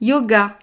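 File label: "yoga", French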